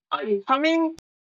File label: Voice Acting, es